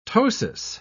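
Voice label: "ptosis", British English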